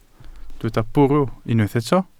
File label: "bwrw", Welsh